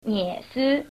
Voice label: shanghainese, numbers

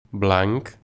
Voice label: "white", Catalan

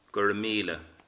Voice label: thanks, irish

